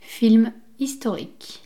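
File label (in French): "film historique", French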